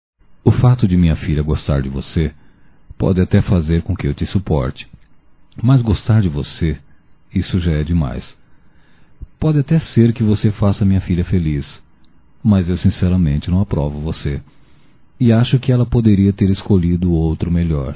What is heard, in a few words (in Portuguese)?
Sogro Para Genro Masculino, Broncas; Telemensagens 2017